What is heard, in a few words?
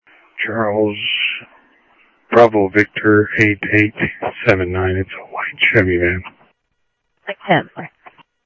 Sheriff, scanner audio